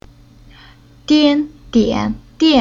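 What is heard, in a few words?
"Dian", Chinese syllable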